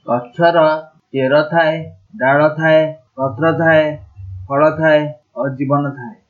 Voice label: roots branches, odia